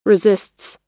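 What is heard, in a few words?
"resists", US English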